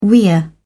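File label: "weir", British English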